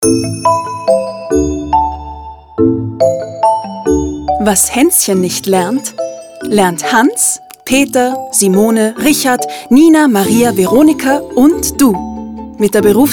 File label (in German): VoiceOver (DE); „WIFI“